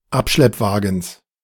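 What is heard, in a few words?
"Abschleppwagens" German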